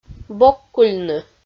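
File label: "бок кульны", Komi, female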